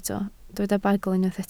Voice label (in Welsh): "bagl" Welsh